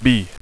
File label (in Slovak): "b", anglicke